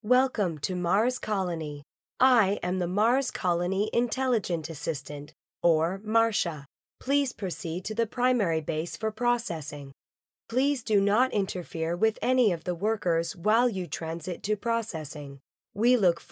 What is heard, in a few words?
AI COMPUTER VOICE